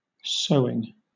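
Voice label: "sewing", British English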